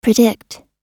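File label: "predict", US English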